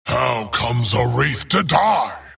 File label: wraith death; dota; skeleton king